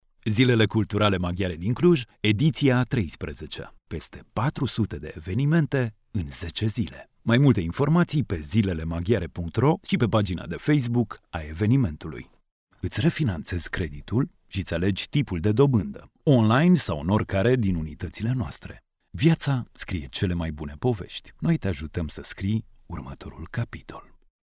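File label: Romanian male voice over, upbeat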